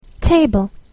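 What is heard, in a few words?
"table", English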